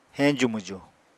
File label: "heñju-mwju", apatani